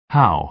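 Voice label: "how", American English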